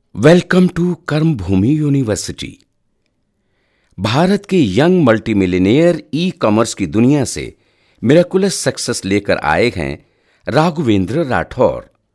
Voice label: narration hindi, Hindi and Urdu male voice artist